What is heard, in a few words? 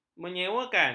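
"menyewakan", Malay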